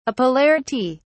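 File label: "apolarity", British English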